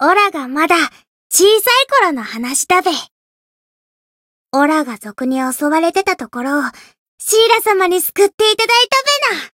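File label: voice story, Japanese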